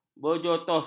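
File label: "berjotos", Malay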